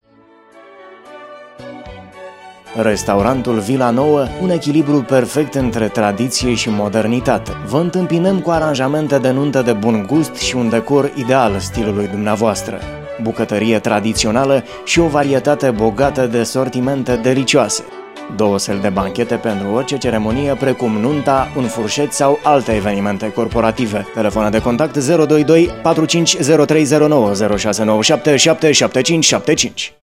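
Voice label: romanian male commercial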